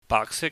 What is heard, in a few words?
"baahksik", Cantonese